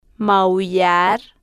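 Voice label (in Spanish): "maullar", spanish, female, slow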